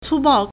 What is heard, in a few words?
"cu1 bao4", Chinese